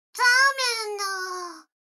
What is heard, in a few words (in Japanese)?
女の子 台詞